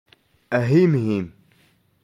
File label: "Ahimhim", Touareg; Lucertola